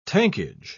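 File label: "tankage", British English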